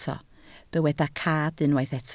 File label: "cad", Welsh